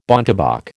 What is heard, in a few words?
"bontebok", American